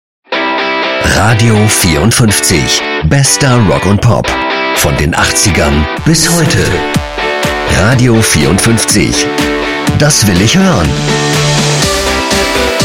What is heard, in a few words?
Station Voice